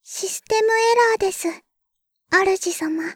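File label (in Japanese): システムエラー, システムボイス; ベル・カールステッド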